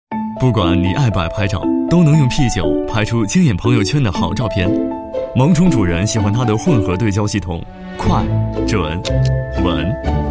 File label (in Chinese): 男 广告 手机, 国语男声配音; 莱卡; 苹果范儿